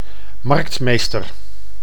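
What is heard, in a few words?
"marktmeester", Dutch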